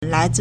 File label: "lai2zi4", Chinese